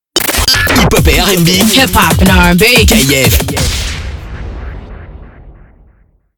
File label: LINER